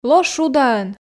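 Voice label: Komi sentences, female; ло шудаӧн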